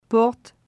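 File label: "Porte", French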